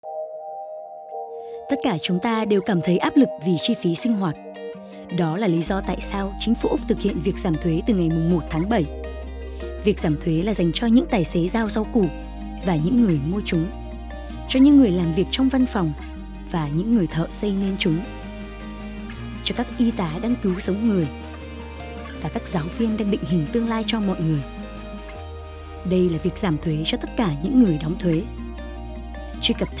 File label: radio, Vietnamese